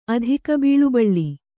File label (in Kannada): "ಅಧಿಕಬೀಳುಬಳ್ಳಿ", Kannada